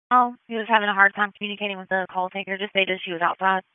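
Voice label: Sheriff's Office Dispatch 1, p25; wake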